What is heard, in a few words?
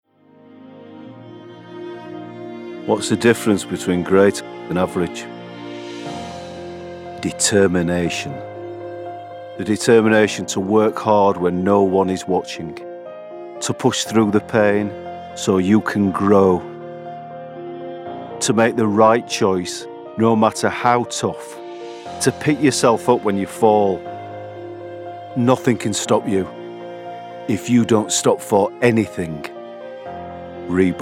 commercial; Reebok